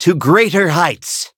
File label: levelup, en; cookierun